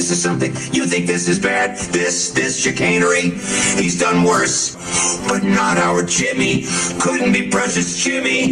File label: vocoded; chuck mcgill; chicanery